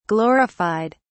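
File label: "glorified", English, British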